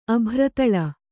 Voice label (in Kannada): "ಅಬ್ಬರತಾಳ", kannada